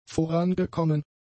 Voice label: "vorangekommen", German; adjectives

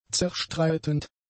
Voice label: "zerstreitend", German; adjectives

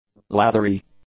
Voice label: "lathery", english

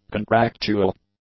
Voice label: "contractual", English; adjectives

